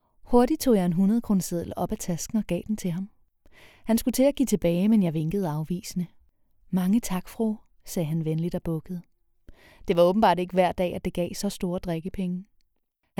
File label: Audiobook danish